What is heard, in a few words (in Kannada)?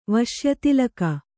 "ವಶ್ಯತಿಲಕ", Kannada